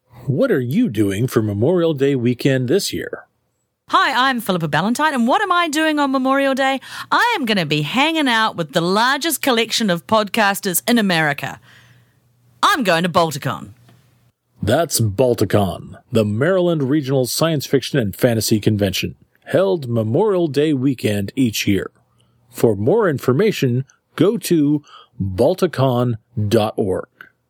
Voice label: Promo; Balticon